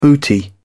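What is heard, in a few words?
"booty", British English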